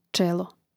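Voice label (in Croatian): "čelo", Hrvatski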